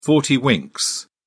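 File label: "forty-winks", English, British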